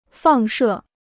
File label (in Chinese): "放射", Chinese